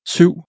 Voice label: "7", Danish